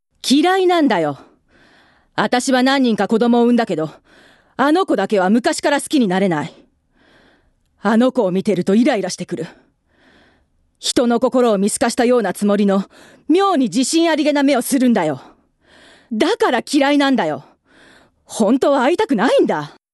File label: female voice; セリフ